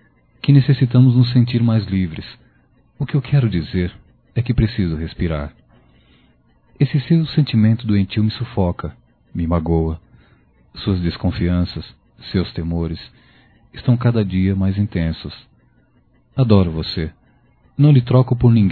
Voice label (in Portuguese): Ciúmes Masculino; Telemensagens 2017